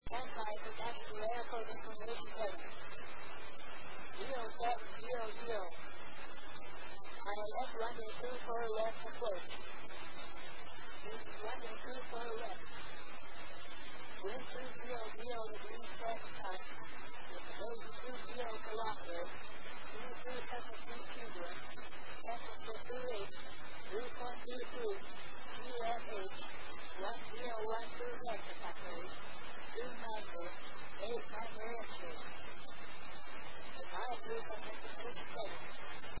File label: ATIS, Japan; KIX; osaka